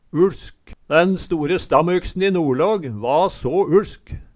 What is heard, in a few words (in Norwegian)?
uLsk, Numedalsmål